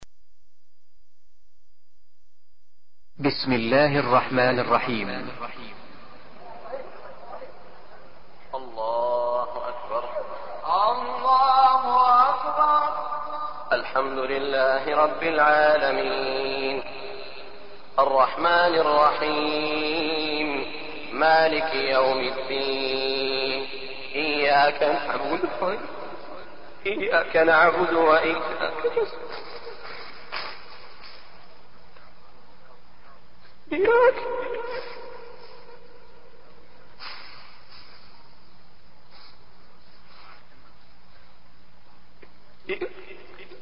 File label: cryin faatiha